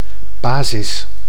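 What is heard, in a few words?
"basis", Dutch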